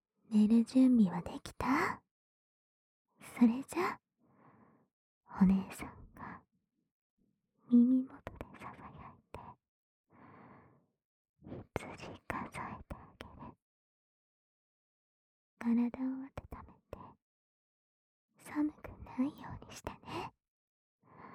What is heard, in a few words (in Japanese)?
お姉さんの耳元囁き羊数え, ASMR